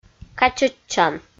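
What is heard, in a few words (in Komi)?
"качӧдчан", Komi, female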